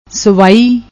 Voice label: "swai", Punjabi; ਸਵਾਈ; سوائی; noun, feminine